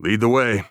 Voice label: following friend, heavy; vo; Counter Strike Model Pack; CS:GO; phoenix coop